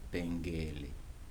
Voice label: "beans and maize", Kibembe